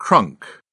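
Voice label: "crunk", British English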